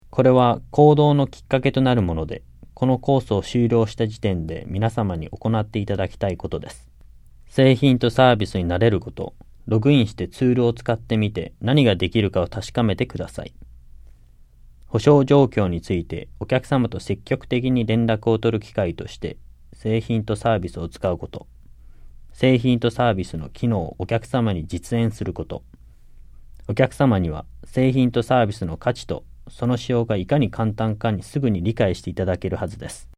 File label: JAPANESE; delltranslations